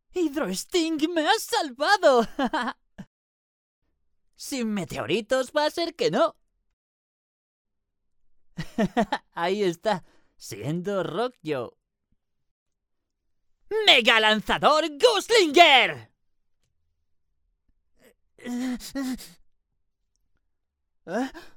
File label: locutor doblaje spanish voiceover